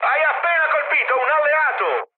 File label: player killed ally mood high, speech it